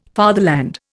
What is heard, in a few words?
"fatherland", Indian